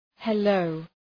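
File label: "hello", English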